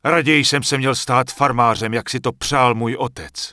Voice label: Human Male Merchant Start Shopping; wowpreklad; czwow; NPC